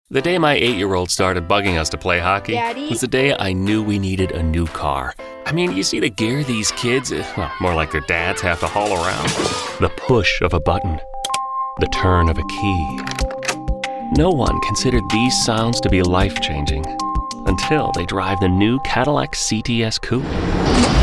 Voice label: Male Voice Over Automotive